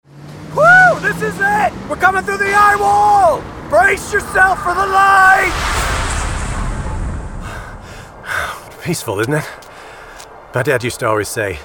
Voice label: gaming, voiceover

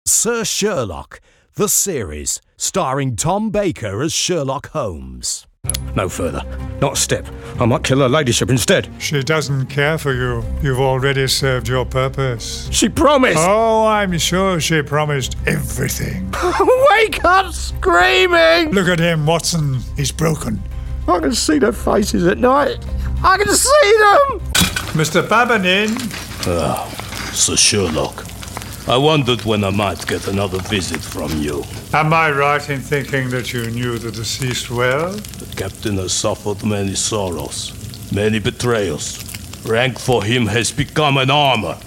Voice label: audio drama narration

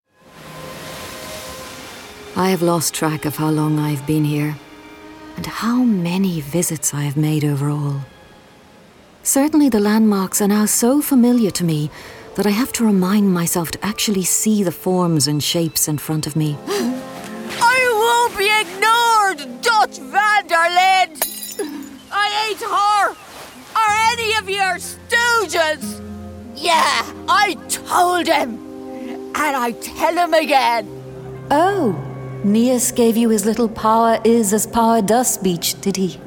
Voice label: video game, voiceover

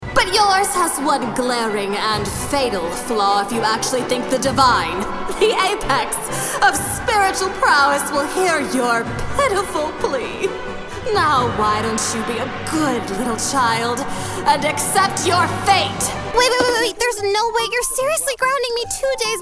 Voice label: animation, voiceover

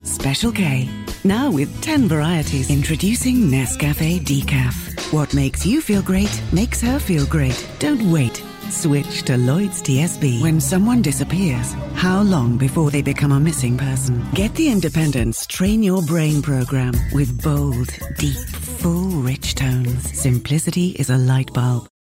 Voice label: voiceover 20 second soundbites

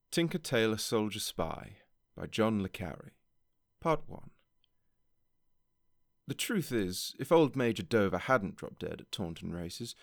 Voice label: voiceover audiobook showreel

